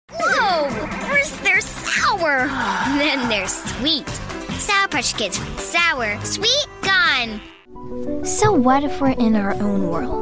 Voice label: VO Commercial

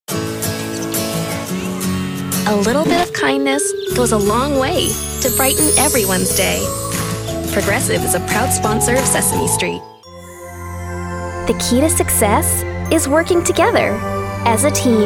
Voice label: Kids Promo

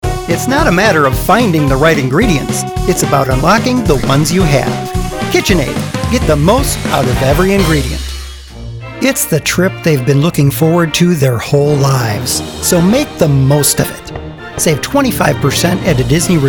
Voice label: Commercials

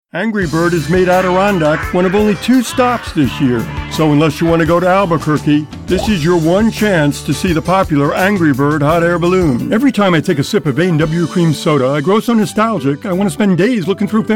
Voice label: Commercial Compilation

